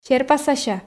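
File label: "серпасася" Komi, female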